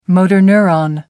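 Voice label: "motor neuron", British English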